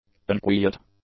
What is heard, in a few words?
"unquiet", english; adjectives